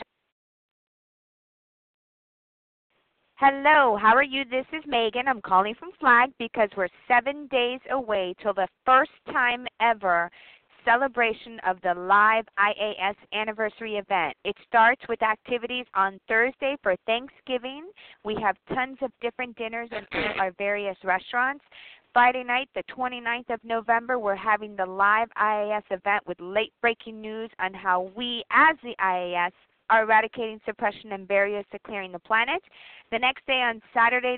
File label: Voicemail